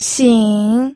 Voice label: "xing3", pinyin

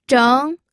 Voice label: "zheng2", pinyin

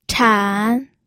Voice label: "chan3", pinyin

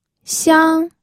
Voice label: "xiang1", pinyin

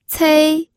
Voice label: "cei1", pinyin

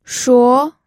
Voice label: "shuo2", pinyin

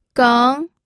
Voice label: "geng2", pinyin audio

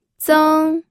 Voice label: "zeng1", pinyin

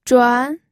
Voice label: "zhuan2", pinyin

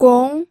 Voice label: "gong2", pinyin audio

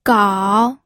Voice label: "gao3", pinyin audio

